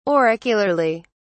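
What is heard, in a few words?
"auricularly", British English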